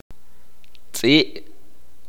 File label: "perro", K'iche'